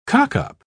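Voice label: "cockup", English, British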